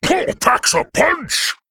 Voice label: Male Worgen Attack